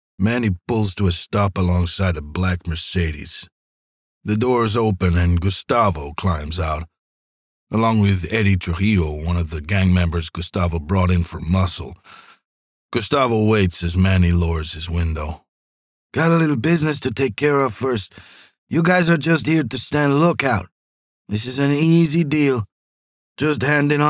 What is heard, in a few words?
Latino American Accent, narrators